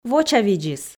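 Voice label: "вочавидзис", Komi, female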